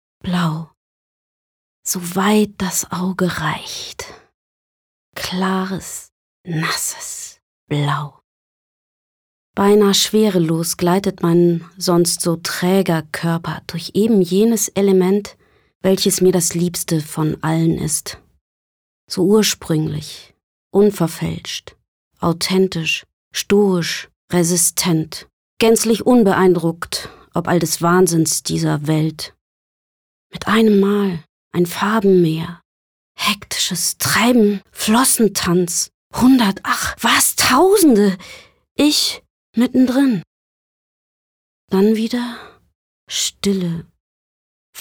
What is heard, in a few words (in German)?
Melancholisch; Blau